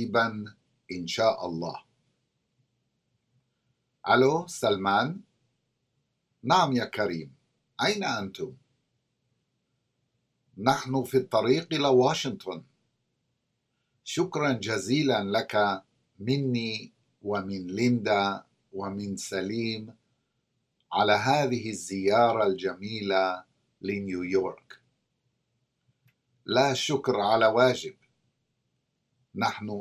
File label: Conversation; SALAMAAT